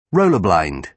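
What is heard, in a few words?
"roller blind", British English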